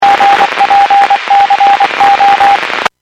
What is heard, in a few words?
Skywarn 146.67; Stevens Point